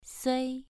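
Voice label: "sui" Mandarin Chinese, second tone, pronunciation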